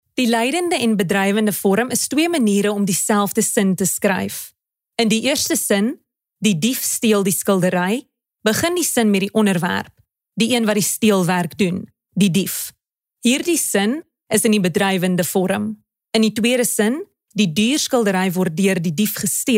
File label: AFR, voice